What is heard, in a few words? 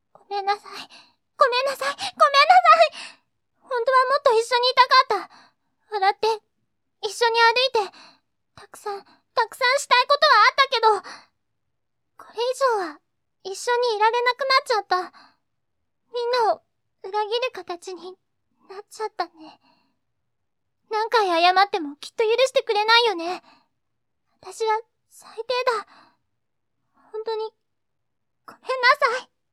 girl; 悲しい感じの台詞。女の子。; 幼い感じ